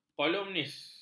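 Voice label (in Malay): "kolumnis", Malay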